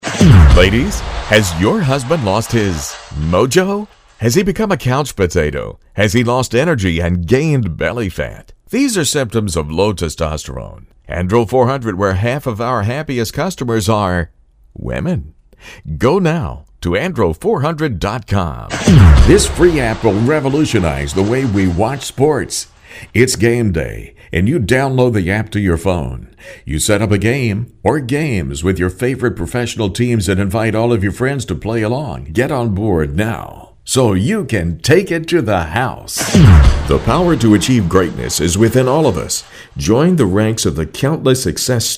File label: DIRECT RESPONSE NATIONAL ADS